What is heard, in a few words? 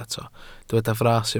"fras", Welsh